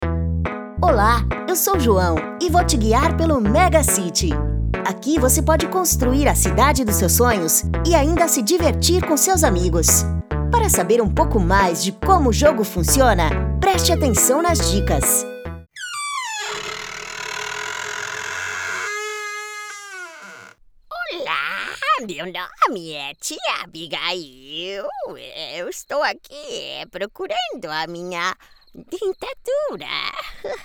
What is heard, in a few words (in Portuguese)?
Caricata Vozes Originais Trilingues